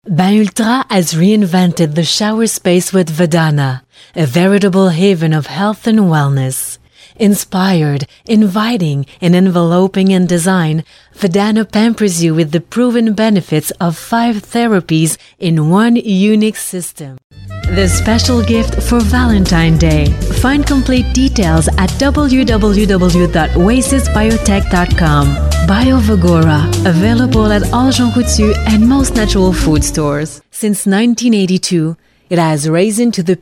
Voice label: French English F